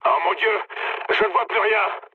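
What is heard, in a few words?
searchlite, mood low, French; War Thunder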